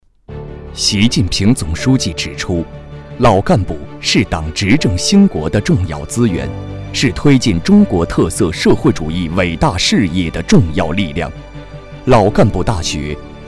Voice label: 男 党政

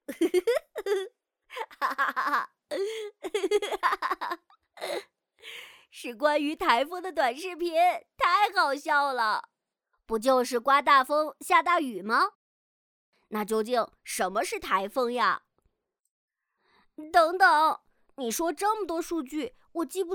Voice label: 女,男中童 灾害宣传

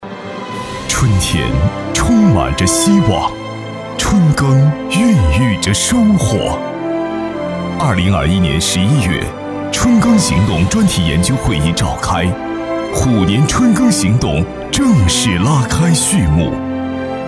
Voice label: 男 大气专题 党政 春耕行动

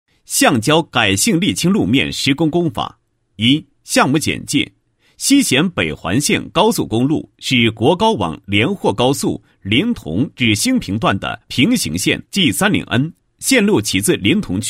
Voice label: 男, 解说; 橡胶改性沥青施工工法

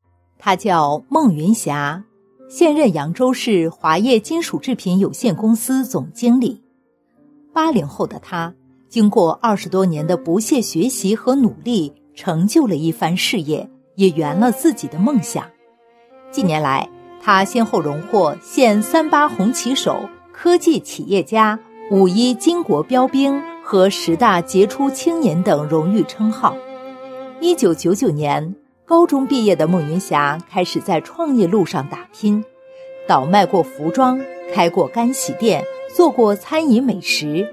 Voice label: 女 人物专题 亲切讲述

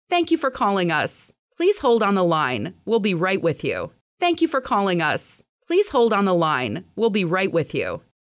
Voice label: 语音留言

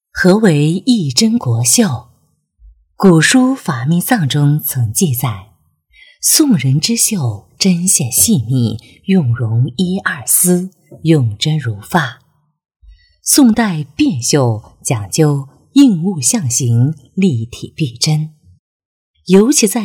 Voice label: 女 品牌介绍 磁性亲和 汴绣礼物

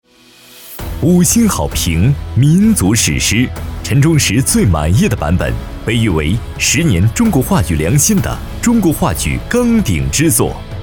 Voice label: 男 广告 质感; 白鹿原

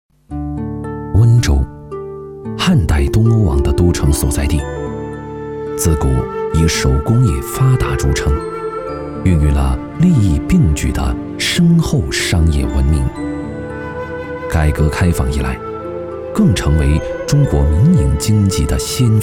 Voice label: 男 专题 历史纪录片

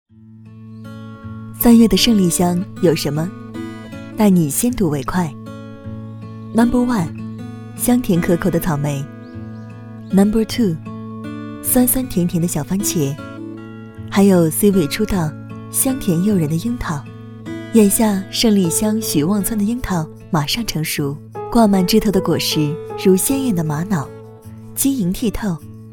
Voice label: 女 文旅片 鲜果之乡

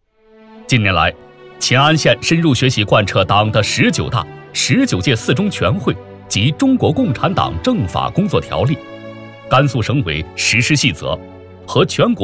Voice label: 男, 汇报, 抑扬顿挫, 有感情有节奏; 秦安县综治中心工作汇报

